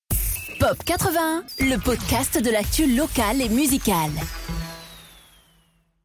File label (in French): Sweep Podcast Actu Locale